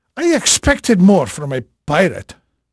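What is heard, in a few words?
Portuguese male, voice; Pirates Of The Caribbean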